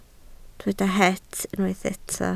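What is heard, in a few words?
"het" Welsh, spoken word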